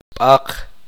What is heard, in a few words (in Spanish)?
uspanteko hueso